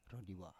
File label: "ro diwa", Biak